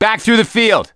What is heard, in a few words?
misc directional, voice; gambler